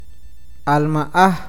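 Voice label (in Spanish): corazón, awakateko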